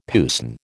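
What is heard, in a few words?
"puisne", American English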